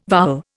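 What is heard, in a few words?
"vowel", Indian English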